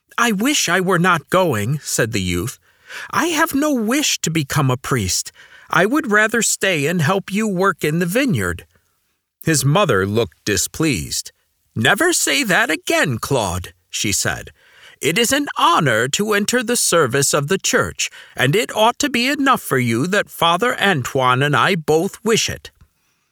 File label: Audiobook Sample; Faithful but not Famous